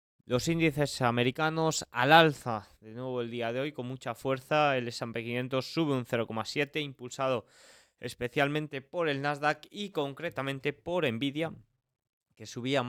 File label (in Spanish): radio; noticias bolsa